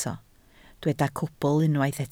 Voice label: "cwbl", Welsh

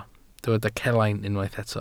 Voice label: "celain", Welsh

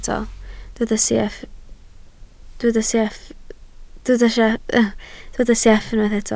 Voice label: "sieff", Welsh

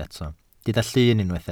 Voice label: "llun", Welsh

